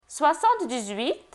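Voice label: "soixante-dix-huit", French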